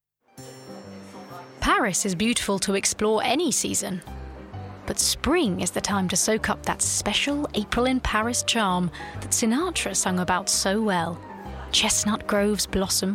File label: Narrative